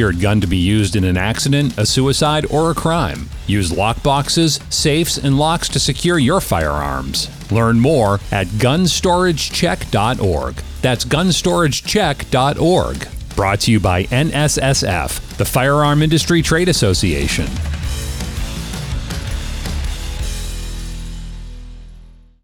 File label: National Gun Storage Safety Male